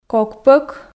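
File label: "кок пӧк", Komi, female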